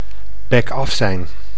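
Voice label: "bekaf zijn", Dutch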